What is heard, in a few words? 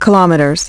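"kilometers", English; iOS 4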